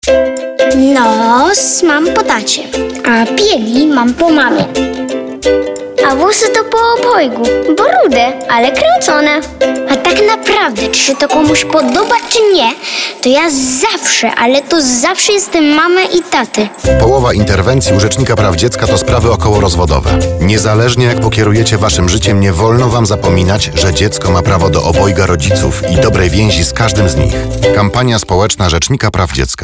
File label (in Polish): spot radiowy